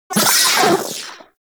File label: Vocal Death; Warframe; Corpus; Arisoctrats; Mini Moa